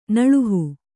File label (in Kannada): "ನೆಲುಹು", Kannada